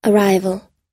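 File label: "arrival", US English word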